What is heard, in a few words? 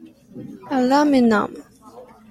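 "aluminum", Japanese female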